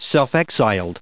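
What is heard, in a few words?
"exiled", English, us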